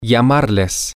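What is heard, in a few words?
male, spanish; to Call You Them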